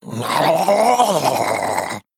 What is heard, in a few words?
Male Murloc Play